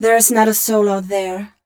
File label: fem spo, Vocals